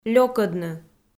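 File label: "лёкӧдны", Komi, female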